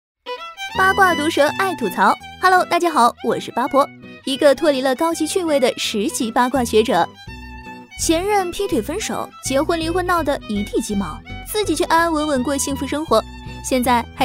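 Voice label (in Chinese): 女【解说】口语化甜美女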